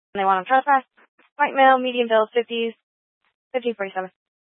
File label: Scottsdale Police, Simulcast; Simulcast H- Scottsdale